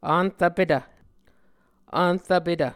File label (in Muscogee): "vnrvpetv", muscogee